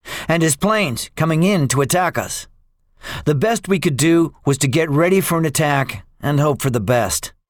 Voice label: debriefing, english; guadalcanal; War Thunder